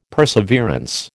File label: "perseverance", American